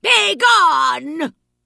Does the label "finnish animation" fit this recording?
No